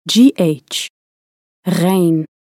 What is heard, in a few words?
"ghayn", arabic